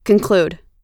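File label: "conclude", US English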